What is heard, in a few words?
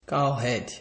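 "cow head", Nigerian Pidgin, male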